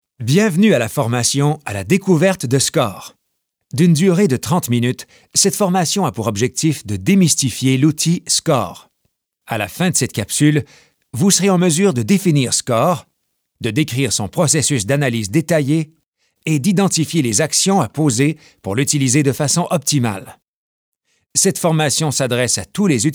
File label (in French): Narration et Doublage